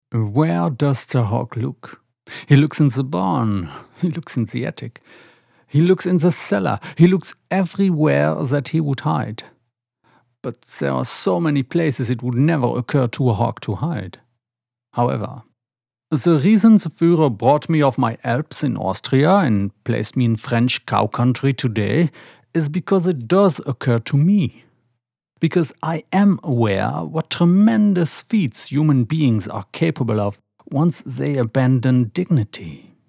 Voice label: Accent German